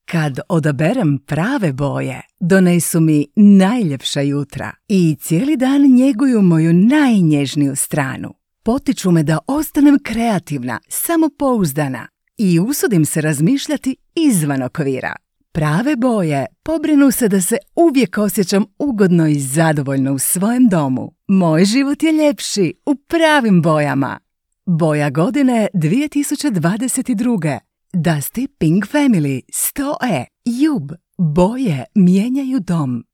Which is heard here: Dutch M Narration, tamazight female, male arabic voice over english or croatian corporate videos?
croatian corporate videos